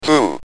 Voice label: "2", Speak & Spell UK Speech